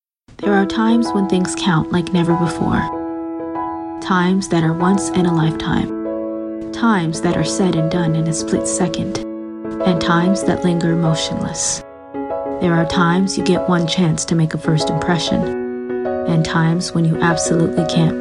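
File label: American English Commercial; GoLocalise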